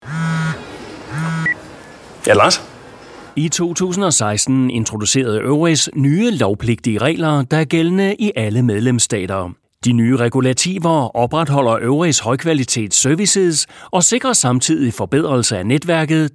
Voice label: Danish male, corporate

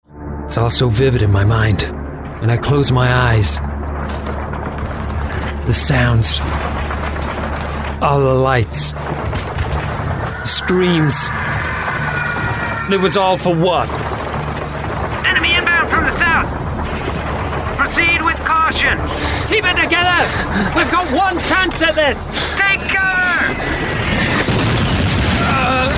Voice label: character

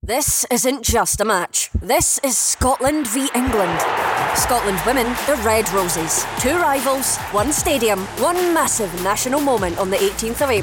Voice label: scottish rugby punchy passion

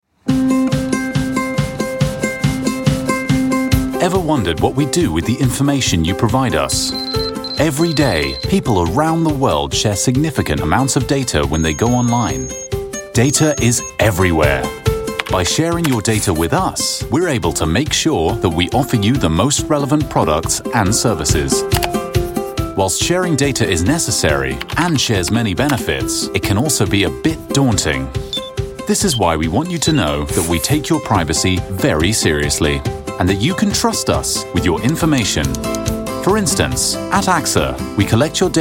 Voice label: British voiceover e-learning

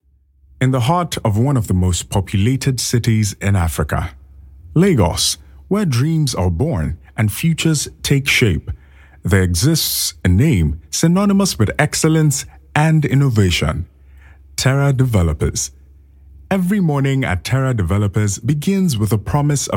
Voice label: Nigerian voice, documentary

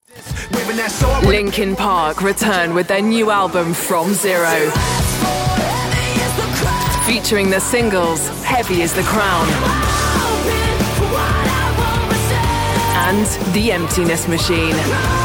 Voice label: imaging and promo

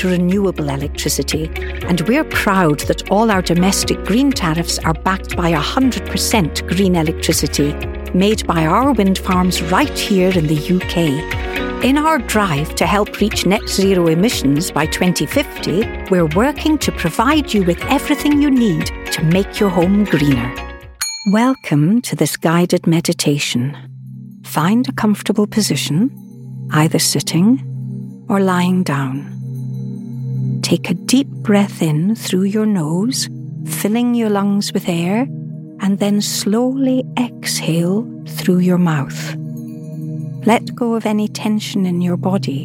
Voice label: mature scottish corporate

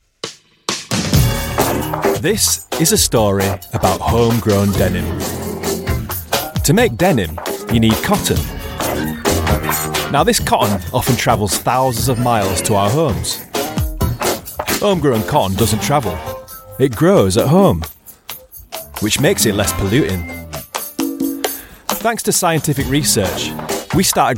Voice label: commercial; g-star raw

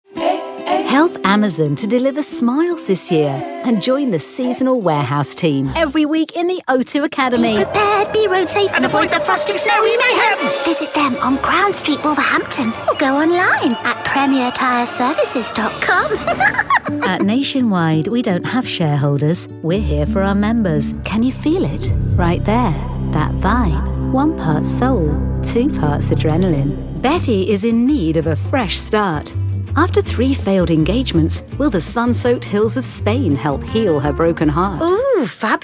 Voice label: radio commercials

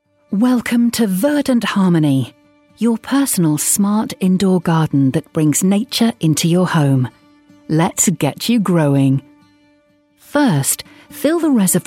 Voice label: explainer

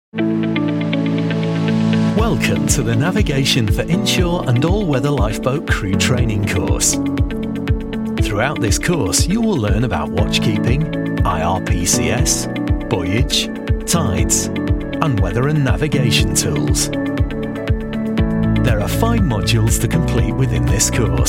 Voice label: elearning, Warm Friendly Character Voice

